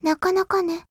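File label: appreciate, Japanese; 人物：少女前线:P50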